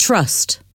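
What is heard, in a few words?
"trust", English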